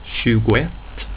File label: "tjugoett", swedish